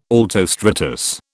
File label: "altostratus", British